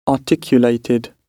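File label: "articulated" British English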